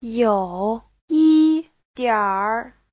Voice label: "you3yi1dianr3", Chinese

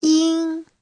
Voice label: "yin1", Chinese

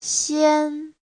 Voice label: "xian1", Chinese; 先

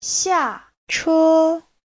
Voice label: "xia4che1", Chinese; 下车 : xuống xe; Giáo trình Hán ngữ

